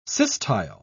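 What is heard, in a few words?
"systyle", British English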